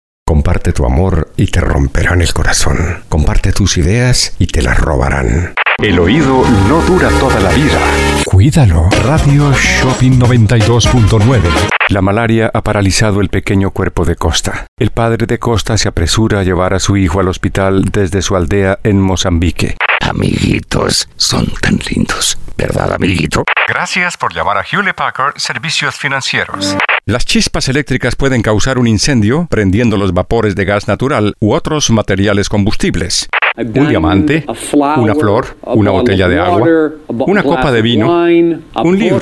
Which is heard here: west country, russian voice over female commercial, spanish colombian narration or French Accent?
spanish colombian narration